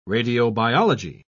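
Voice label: "radiobiology", British English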